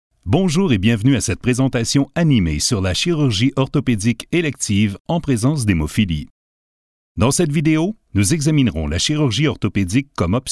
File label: Narration Cold Reading